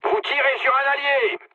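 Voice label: player friendly fire, mood low, French; War Thunder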